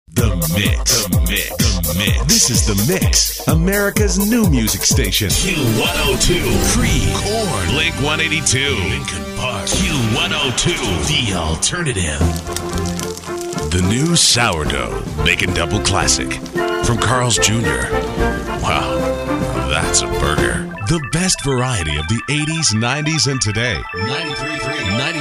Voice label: imaging